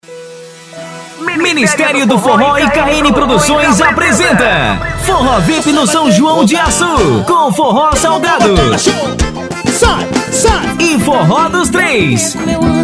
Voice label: SPOT; VIP FORRO; spots